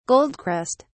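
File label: "goldcrest", British English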